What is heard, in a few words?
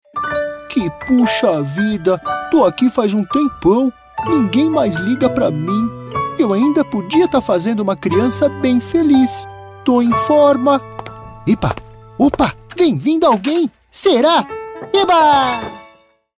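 TOY BEAR CHARACTER VOICE PORTUGUESE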